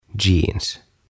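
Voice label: "Jeans", Swedish, "Farkut", Finnish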